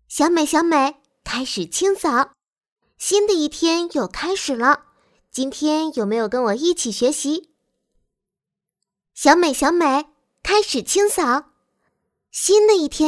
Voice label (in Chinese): 女童